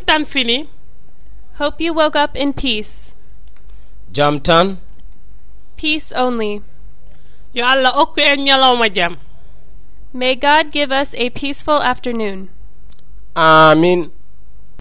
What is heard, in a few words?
Morning Greetings, Fula; PeaceCorps